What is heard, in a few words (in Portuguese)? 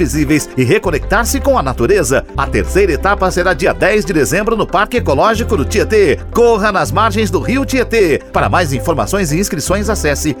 spot circuito; circuito rios e ruas; pet